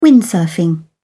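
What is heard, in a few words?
"windsurfing", British English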